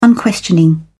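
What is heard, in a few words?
"unquestioning", British English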